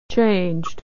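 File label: "changed", American English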